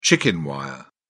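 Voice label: "chicken wire", British English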